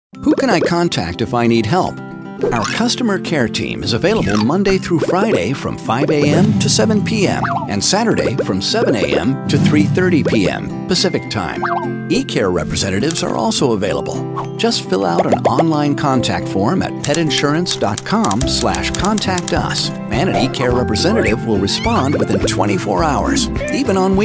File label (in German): TV Werbespots Online Werbespots Breites Publikum; NATIVE PROFISPRECHER